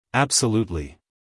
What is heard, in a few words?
"absolutely" us male